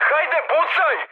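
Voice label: Serbian attack position mood high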